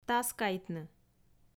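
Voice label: "таскайтны", Komi, female; audio dict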